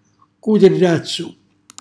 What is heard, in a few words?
"cutellazzu", Calabrese; coltellaccio